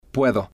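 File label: "puedo", Spanish, male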